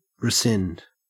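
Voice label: "rescind", Australian English; pronunciations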